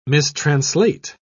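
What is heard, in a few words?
"mistranslate", British English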